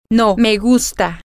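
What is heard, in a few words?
I like no, Spanish, female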